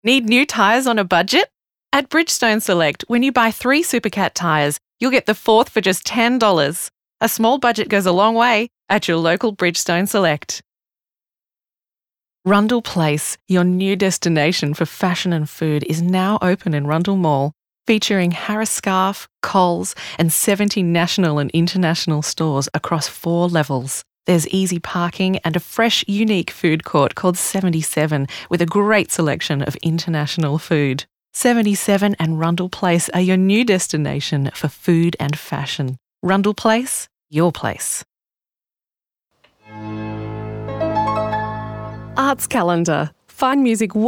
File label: Voice Over Radio Commercials